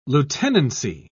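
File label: "lieutenancy", British English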